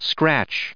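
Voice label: "scratch", English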